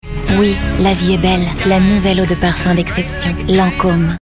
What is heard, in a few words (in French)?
pub FR; Lancome